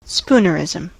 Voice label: "spoonerism", En-us